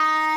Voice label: "chakachakachan" D#5; 轻音少女

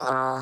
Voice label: Bass, e, C3; 音MAD

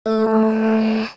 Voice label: "Ummm", A3; voi training; 赛马娘; 麦昆

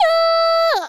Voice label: "yo" E5 long; 命运石之门; 助手

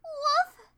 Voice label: Woof (question); Momiji

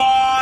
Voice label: "o", C5; 音MAD; Z会

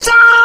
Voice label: "zha" A#5; 穿山甲

